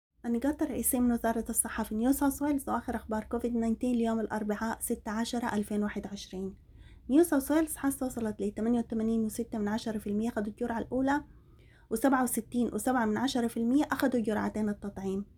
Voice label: sudanese; covid-19 coronavirus; covid-19 daily key points